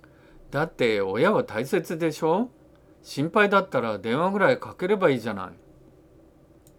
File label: dialogue line, japanese